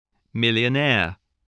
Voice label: "millionaire", voice, spelling